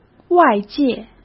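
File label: "外界", Mandarin Chinese; hsk; chinese-flashcards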